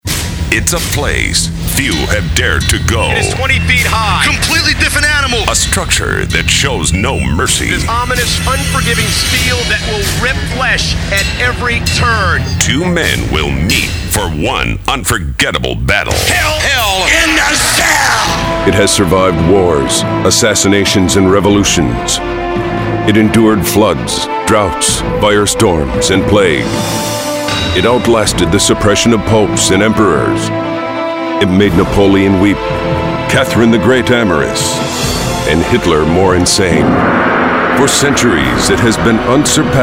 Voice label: Male, promos